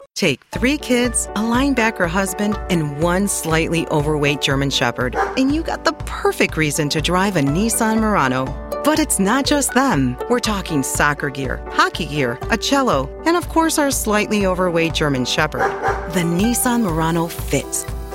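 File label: automotive, voiceover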